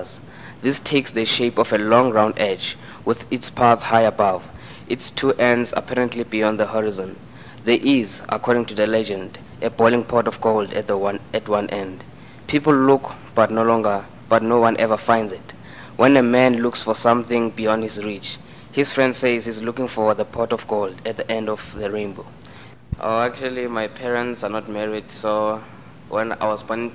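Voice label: south africa, dialects of English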